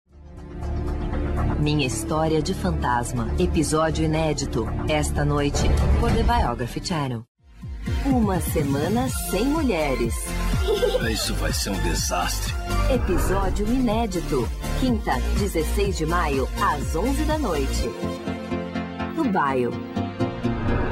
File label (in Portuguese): CHAMADAS DE TV